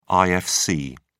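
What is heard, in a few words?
"ifc", English, British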